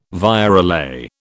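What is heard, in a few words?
"virelay", British English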